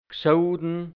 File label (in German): "gsoudn", Hianzisch, Mundart, Burgenland; gesotten